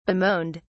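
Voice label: "bemoaned", British English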